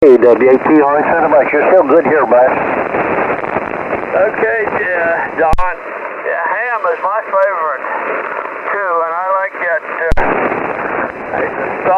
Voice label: 20m SSB